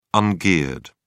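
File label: "ungeared", English, uk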